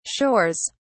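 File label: "shores", British English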